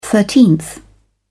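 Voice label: "thirteenth", British English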